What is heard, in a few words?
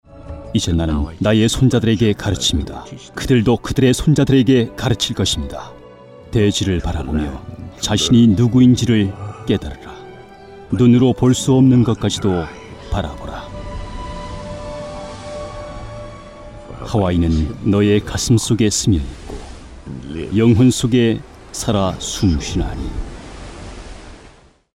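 Korean commercial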